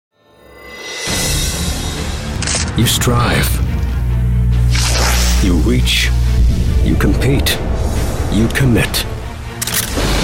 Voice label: Automotive Imaging